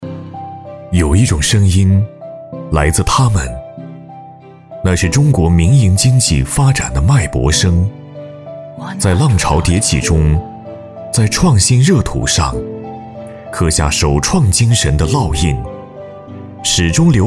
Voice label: 男 专题宣传 沉重大气; 知识产权

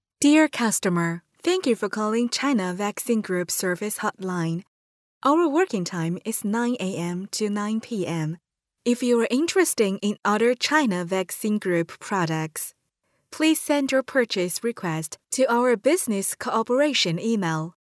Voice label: 女 英语样音 电话; 中国疫苗中心电话

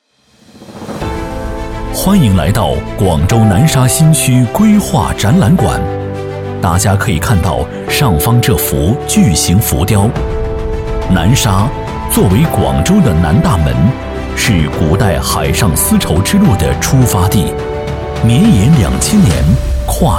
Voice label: 男 多媒体; 广州南沙新区

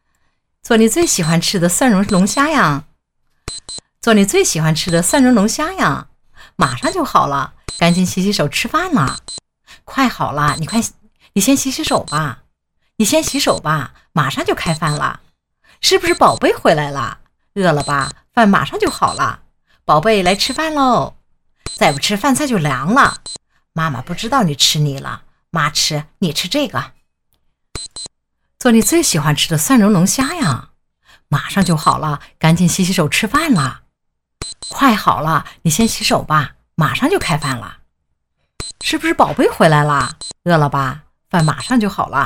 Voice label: 模仿 妈妈; 做你最喜欢吃的蒜蓉龙虾呀

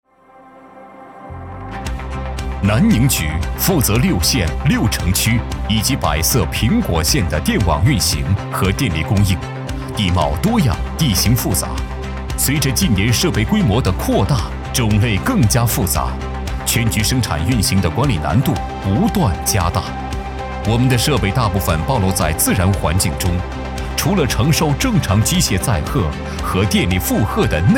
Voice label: 男 大气宣传片; 南宁局

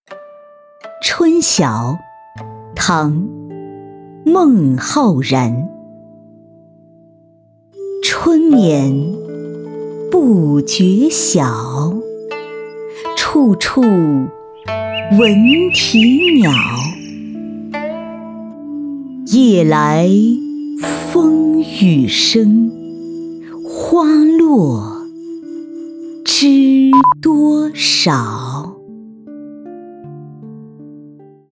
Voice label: 古诗朗诵

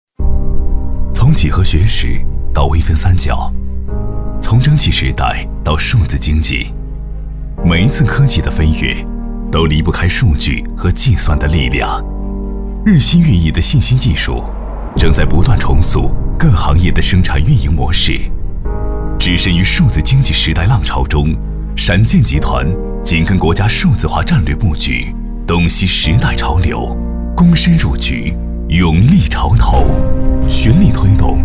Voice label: 男, 宣传片 科技公司科技感

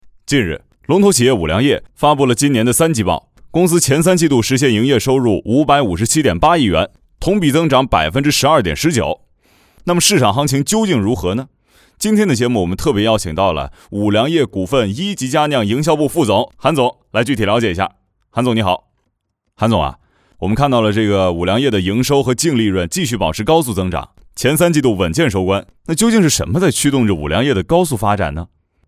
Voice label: 男 电购主持人

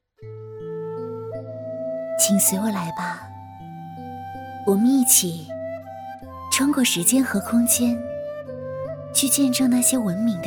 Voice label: 女 独白 古风韵味

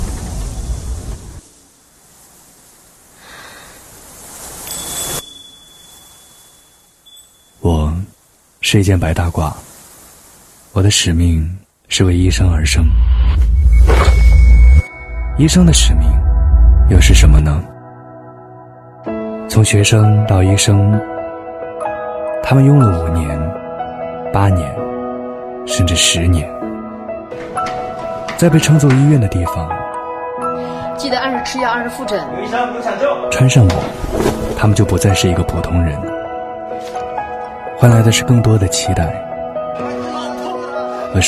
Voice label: 男 宣传片 白大褂 素人独白

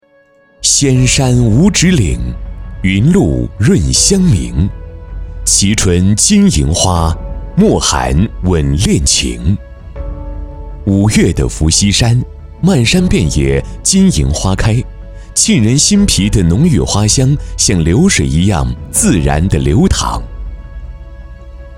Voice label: 男 宣传片 金银花

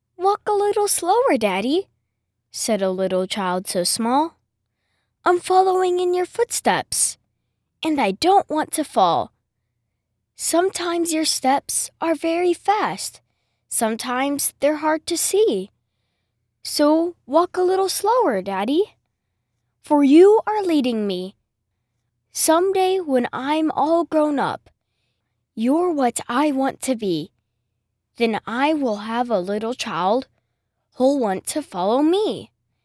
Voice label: 美式童声男

